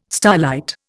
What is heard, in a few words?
"starlight", Indian